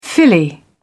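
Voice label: "filly", British English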